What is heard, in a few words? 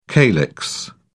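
"calyx", British English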